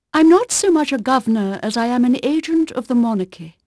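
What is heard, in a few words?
English female, voice; Pirates Of The Caribbean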